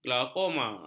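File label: "glaukoma", Malay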